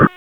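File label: Raleigh Police North District Tactical, p25; wake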